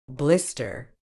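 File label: "blister", British English; ˈblɪs.tə; BLISS-tuh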